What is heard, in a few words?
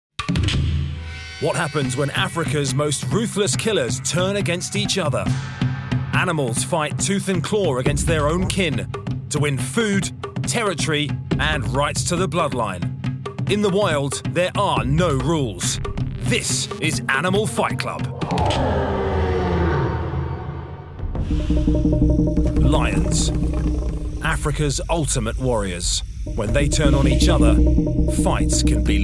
voice narration